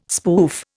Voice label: "spoof", Indian

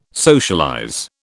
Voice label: "socialize", British

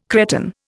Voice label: "Cretan", Indian

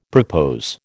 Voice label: "propose", American

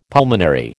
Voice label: "pulmonary", American

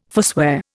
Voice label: "forswear", Indian

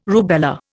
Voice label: "rubella", Indian

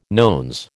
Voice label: "nones", American

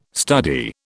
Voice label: "study", British

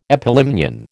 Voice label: "epilimnion", American

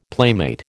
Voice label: "playmate", American

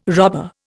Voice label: "robber", Indian

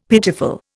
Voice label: "pitiful", Indian; Dictionary

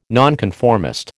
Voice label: "nonconformist", American

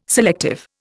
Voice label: "selective", Indian

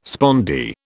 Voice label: "spondee", British

